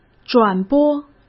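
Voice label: "转播", Mandarin Chinese; hsk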